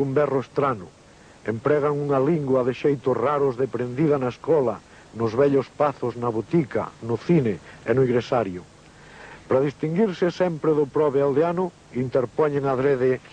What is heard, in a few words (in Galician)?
poesia castrapo recitada; poesia na noite